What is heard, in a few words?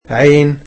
"eye", egyptian